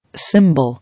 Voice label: "symbol" English